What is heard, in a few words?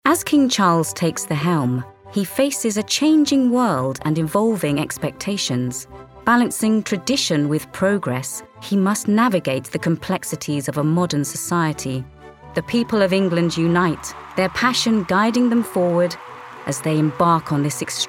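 Narration DOCUMENTARY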